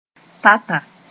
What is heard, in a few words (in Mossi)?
"tata", moore